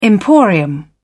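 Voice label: "emporium", British English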